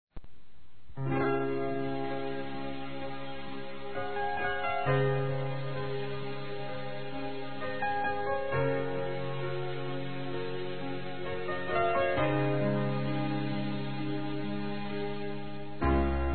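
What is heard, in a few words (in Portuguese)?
Romântica Conquista, Telemensagens, Românticas masculino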